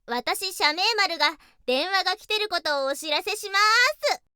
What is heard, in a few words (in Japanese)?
イメージボイス 着信っぽいイメージ